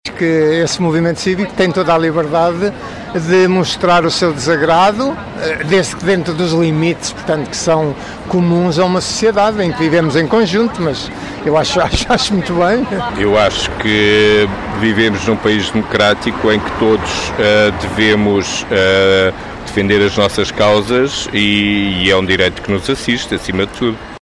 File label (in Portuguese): Voz Pop